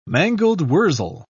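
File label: "mangold-wurzel", British English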